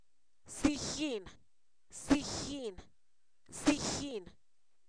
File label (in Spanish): Zapoteco, san miguel albarradas; palma; comestible